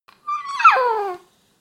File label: baby talk